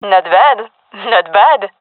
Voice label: compliment, gendarmerie fem epic; vo; agents